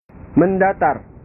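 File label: "mendatar", Indonesian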